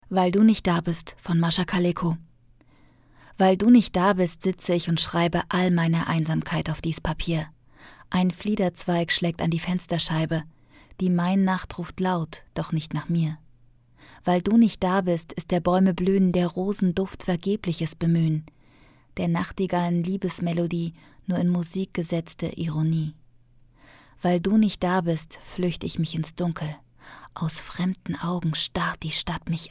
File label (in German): Hoerbuch; Weil Du Nicht Da Bist